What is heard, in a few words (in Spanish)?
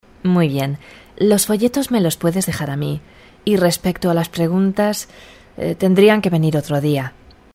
locucion medioambiental, cursos interactivos